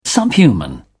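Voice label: "subhuman", British English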